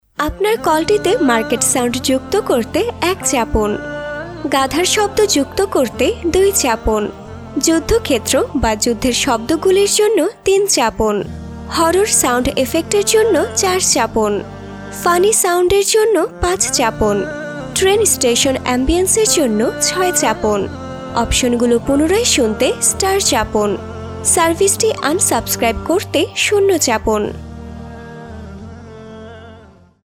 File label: IVR Bangla